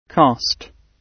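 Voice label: "cast", British English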